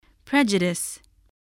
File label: "prejudice", voice, spelling